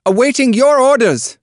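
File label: Voices Units Allied Machinegunner select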